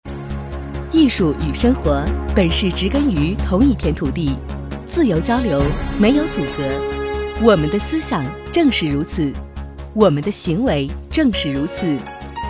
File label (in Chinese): 女 广告大气 配乐后; 家具